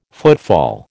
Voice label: "footfall", American